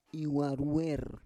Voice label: "iwaruwer", Roon